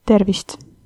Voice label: hello, estonian; Tervist

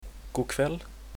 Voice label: good evening, swedish

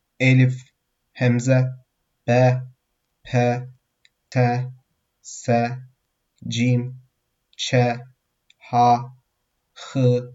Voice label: alphabet turkish

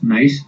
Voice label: my name is, basque

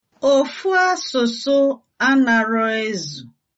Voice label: one language, igbo

